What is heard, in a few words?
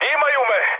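Serbian aircraft destroyed mood high; speech; War Thunder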